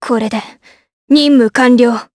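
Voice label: Vox Skill, voices, Japanese; Tanya; kingsraid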